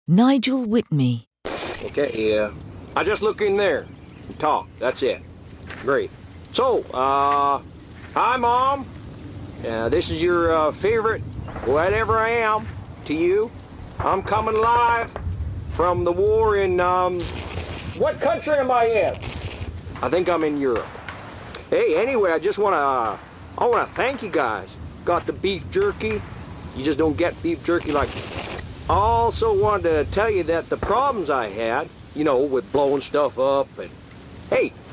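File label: computer game character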